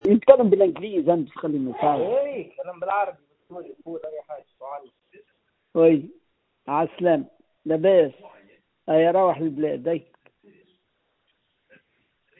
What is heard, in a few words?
voicemail